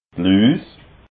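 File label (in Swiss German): "luus", Haut Rhin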